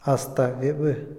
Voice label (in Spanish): "por mucho tiempo", Otomí, hombre; INALI